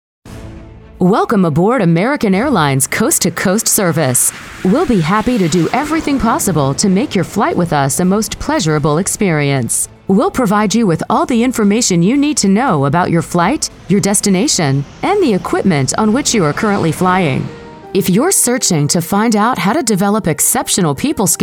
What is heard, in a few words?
E LEARNING